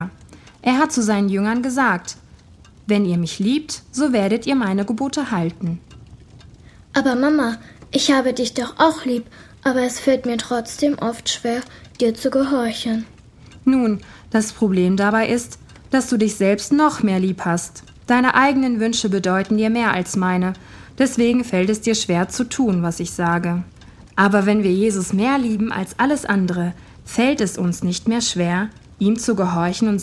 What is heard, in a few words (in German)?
Hoerspiel